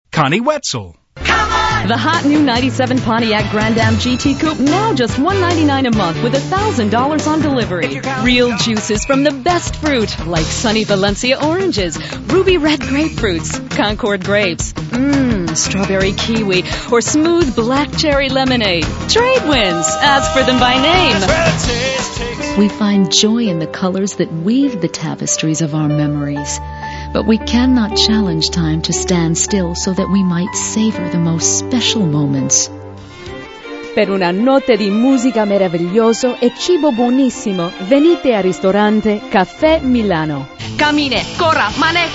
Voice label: female; Spotland Productions